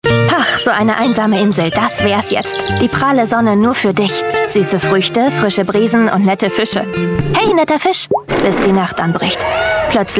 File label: german voice over female narrator